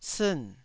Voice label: "siin4", Taiwanese

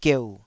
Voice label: "gieu3", Taiwanese syllable

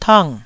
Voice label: "tang4", Taiwanese

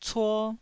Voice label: "cuo1", Taiwanese

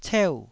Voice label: "ceu4", taiwanese

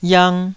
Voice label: "yang2", taiwanese Syllables

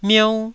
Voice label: "miou1", Taiwanese

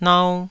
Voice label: "nau2", taiwanese

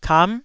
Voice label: "kam9", taiwanese syllables

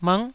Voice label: "mngh9", taiwanese

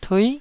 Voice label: "tui9", taiwanese

